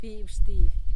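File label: "bibyxtily", Teotitlán del Valle Zapotec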